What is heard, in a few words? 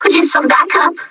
pain heavy, metropolice; npc